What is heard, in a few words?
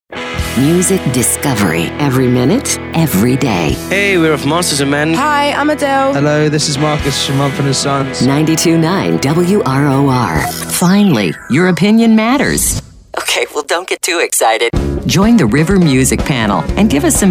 Imaging